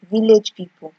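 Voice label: "village people", Nigerian Pidgin, female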